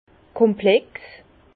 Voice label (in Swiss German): "komplex", Bas Rhin; vin; complexe